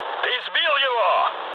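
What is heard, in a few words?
player killed enemy, mood low, Russian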